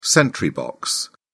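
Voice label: "sentry box", British English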